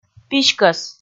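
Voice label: "писькӧс", Komi, female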